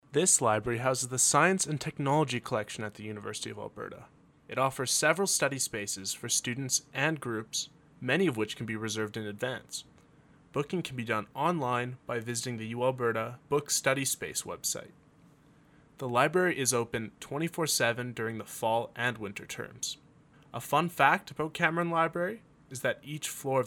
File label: English; Cameron Library (CL); NSO Tour